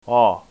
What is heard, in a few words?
o open, latin ipa